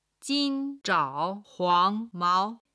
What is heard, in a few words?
"jin1 zhao3 huang2 mao2", Chinese